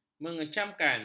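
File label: "mengecamkan", Malay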